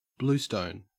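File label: "bluestone", English, Australian accent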